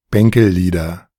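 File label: "Bänkellieder", German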